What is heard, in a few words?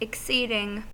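"exceeding", American English; pronunciations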